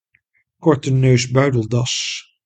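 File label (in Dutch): "kortneusbuideldas", Dutch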